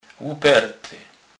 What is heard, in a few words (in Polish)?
"luperty", kurpiow